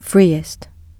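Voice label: "freest", British English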